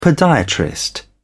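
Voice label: "podiatrist", British English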